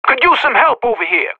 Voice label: Professional Radio bot under fire